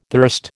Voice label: "thirst", American